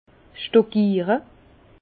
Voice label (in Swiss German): "stockiere", Bas Rhin; vin; stockage